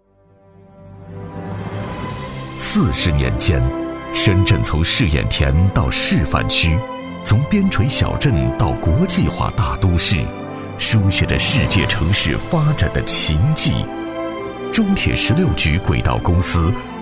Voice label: 男 汇报 沉稳 大气; 特区示范

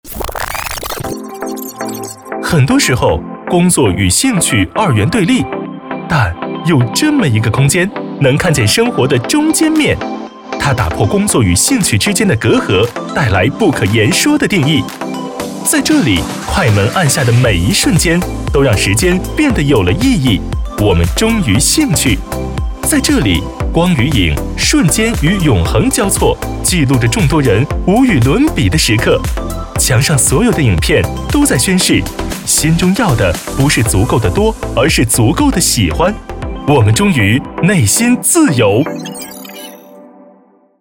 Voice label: 男 中文 活力类宣传

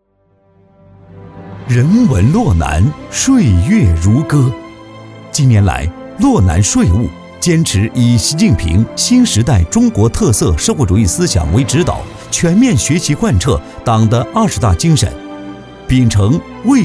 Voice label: 男, 党政, 党建

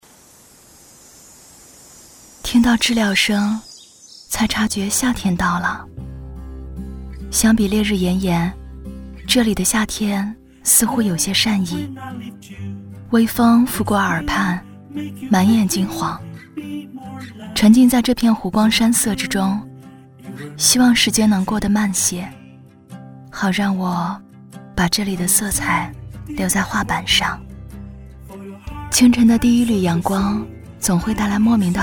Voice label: 女 短视频; 风-从塘马来

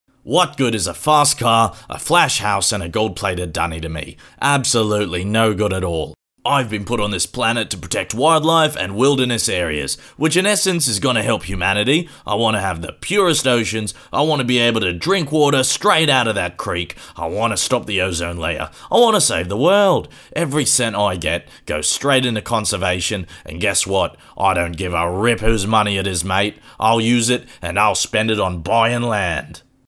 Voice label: 外籍英男 角色模仿

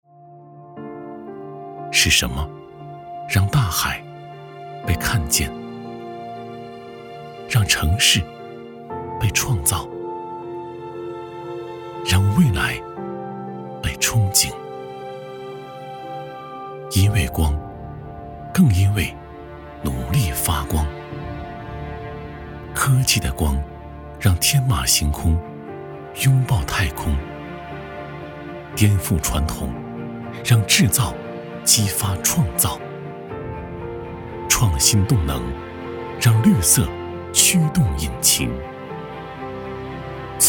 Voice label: 男 旅游宣传; 魅力象山

